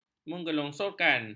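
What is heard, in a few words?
"menggelongsorkan", Malay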